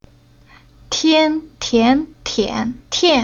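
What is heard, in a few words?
"Tian", Chinese syllable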